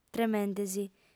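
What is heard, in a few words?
"tremende·si", female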